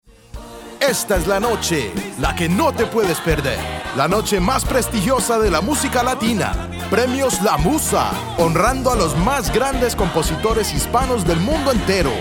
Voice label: Spanish Commercial for Radio; LSHOF 2016 Gala